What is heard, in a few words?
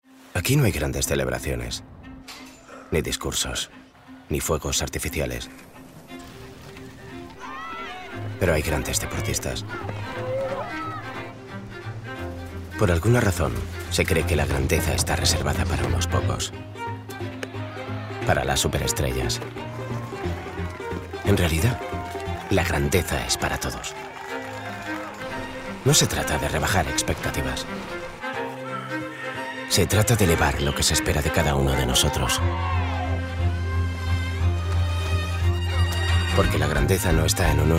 male spanish sport brand comercial; ideasonora